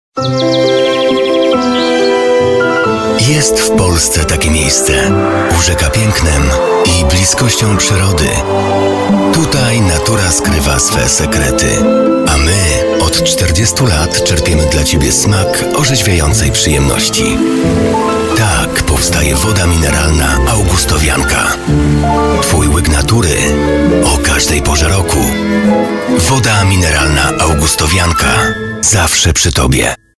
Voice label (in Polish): reklama